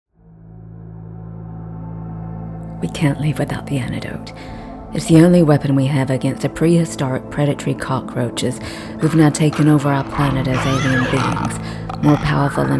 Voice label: US accent